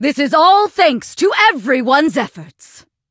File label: levelup en; cookie run